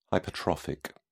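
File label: "hypertrophic", English, British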